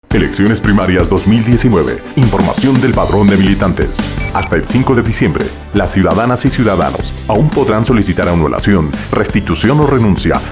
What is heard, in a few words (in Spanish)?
padron espaniol; cuña en español; Elecciones Primarias del 27 de enero del 2019; Tribunal Supremo Electoral